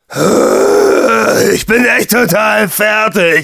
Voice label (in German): Demoman jeers, de